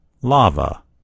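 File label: "lava", US English; gcompris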